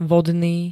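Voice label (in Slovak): "vodný", Slovak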